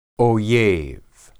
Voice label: "oyeb", Hebrew

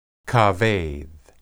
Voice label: "kabed", Hebrew

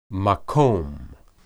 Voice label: "maqom", Hebrew

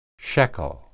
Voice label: "sheqel", Hebrew

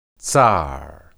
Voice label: "tsar", Hebrew

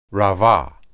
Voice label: rabah, hebrew

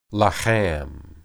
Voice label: "laham", Hebrew

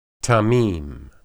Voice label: "tamim", Hebrew

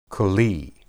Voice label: keli, hebrew

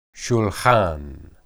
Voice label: "shulhan", Hebrew

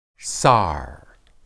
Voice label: "sar", Hebrew

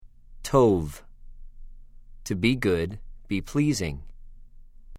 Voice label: "טוֹב", Hebrew

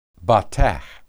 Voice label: "batah", Hebrew

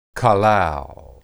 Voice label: qalal, hebrew

